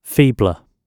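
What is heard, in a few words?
"feebler", British English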